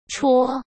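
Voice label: "戳", Mandarin Chinese; hsk; chinese-flashcards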